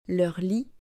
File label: "leur lit", French